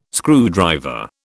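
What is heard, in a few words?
"screwdriver", British English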